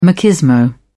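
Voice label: "machismo", British English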